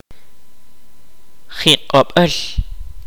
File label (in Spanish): "fruta", Kaqchikel